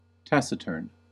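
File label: "taciturn", US English; pronunciation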